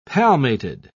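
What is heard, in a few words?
"palmated", British English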